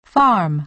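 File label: "farm", American English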